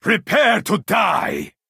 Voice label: Nord male taunt

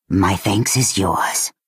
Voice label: Argonian female thank you

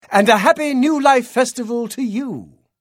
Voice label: Greeting High Elf Male; Unused Holiday New Life

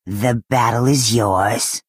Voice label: Argonian female concede

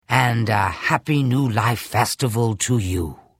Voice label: Holiday New Life Greeting Argonian Female; Unused

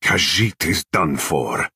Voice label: Khajiit male concede

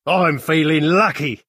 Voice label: Bosmer male implore

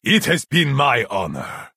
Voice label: Nord male "good game"

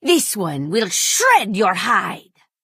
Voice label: female taunt; Khajiit

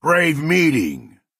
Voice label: Orc male hello

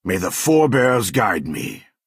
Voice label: Redguard male implore

